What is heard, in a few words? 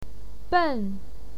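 "ben", Mandarin Chinese, fourth tone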